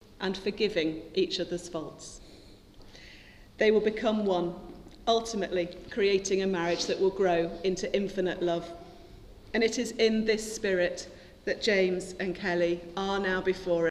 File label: lavaliers; jk mic j 044